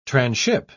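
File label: "tranship", British English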